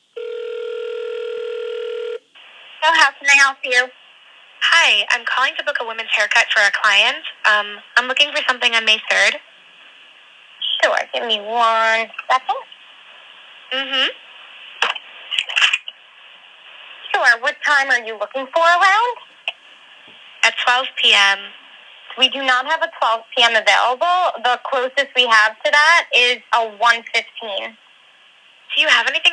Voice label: female; Google Duplex